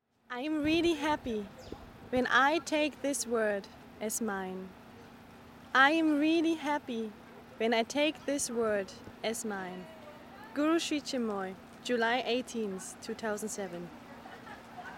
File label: poem